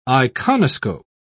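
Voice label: "iconoscope", British English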